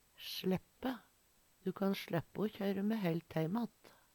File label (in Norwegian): slaeppe, Numedalsmål; Verb; Du kan sLeppe o kjøyre me heilt heimatt.; Veggli